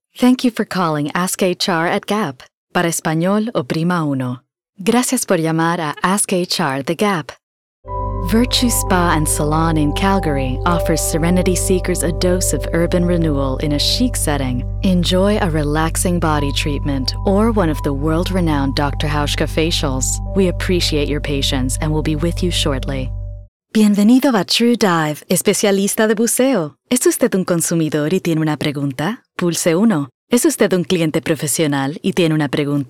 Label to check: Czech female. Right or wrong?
Wrong